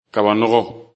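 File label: "kabanogo", Bambara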